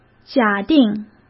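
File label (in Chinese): "假定", Mandarin Chinese; hsk; chinese-flashcards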